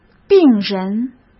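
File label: "病人", Mandarin Chinese; chinese flashcards; hsk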